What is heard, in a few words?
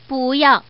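Woman, "don't want"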